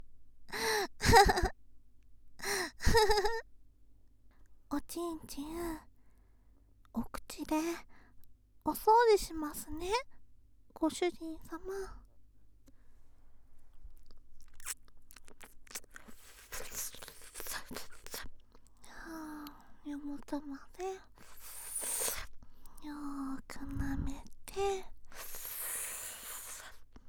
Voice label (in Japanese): お掃除フェラとオナホ宣言, ASMR